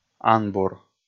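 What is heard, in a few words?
"анбур", Komi, male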